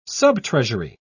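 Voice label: "subtreasury", British English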